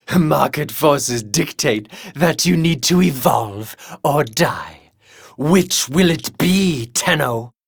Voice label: Taunt Neutral

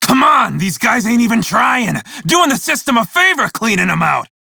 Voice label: crew enemy defeat, male; Warframe; Railjack; Red Veil

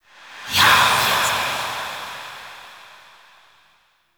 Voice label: Whisper; Kuva Lich; Requiem Mod; JAHO

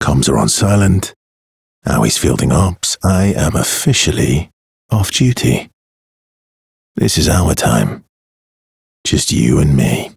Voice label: Ambient Barks, en; Warframe; Mall Romance; Arthur

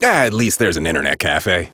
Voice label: Citizen Male, en; Citizens

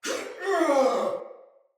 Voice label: Death Soldier Male; Sinecure Soldier Male A

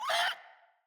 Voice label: Infested Runner Pain

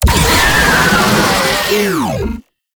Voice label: Pain; Warframe; Proto Infested; Babau

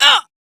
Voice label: Pain, Female; Warframe; Railjack; Cephalon Suda

